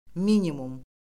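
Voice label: "minimum", Azerbaijani; Orfoqrafiya Lüğəti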